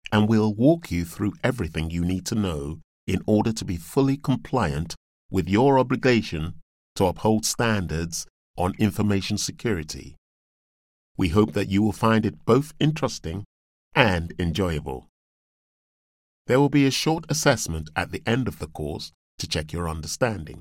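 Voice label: English UK, E-learning, voice demos; GoLocalise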